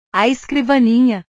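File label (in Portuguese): "a escrivaninha", Portuguese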